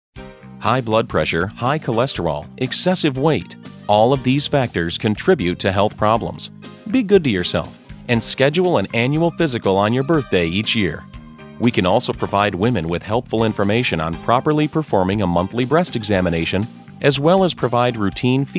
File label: medical office, male voices; audio on hold